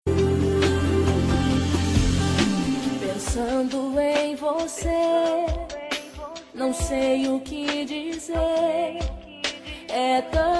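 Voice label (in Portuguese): Dia das Maes para Avo feminino, telemensagem; Dia das Maes para Avo FEM C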